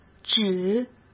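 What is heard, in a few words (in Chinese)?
"只", Mandarin Chinese; chinese flashcards; hsk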